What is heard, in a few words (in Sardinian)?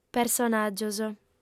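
"personàgios", female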